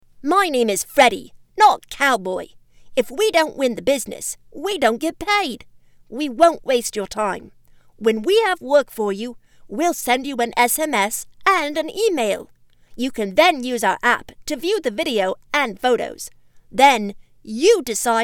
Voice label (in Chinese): 外籍英女 轻松活力